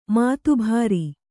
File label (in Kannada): "ಮಾತಬರ", Kannada